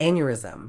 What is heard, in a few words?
"aneurysm", Medical English; ˈæn.jə.rɪ.zəm; AN-yuh-ri-zuhm